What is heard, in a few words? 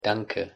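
"danke", German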